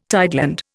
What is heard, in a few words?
"tideland", Indian